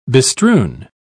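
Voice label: "bestrewn", British English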